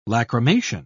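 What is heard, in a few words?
"lachrymation", British English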